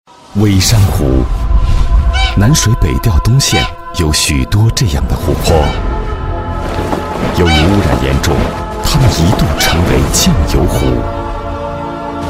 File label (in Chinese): 男, 广告, 公益广告; 南水北调; 央视